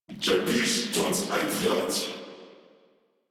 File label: Patrol, Battle Barks